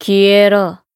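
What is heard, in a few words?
Voice finish, Japanese; venefica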